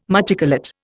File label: "machicolate", Indian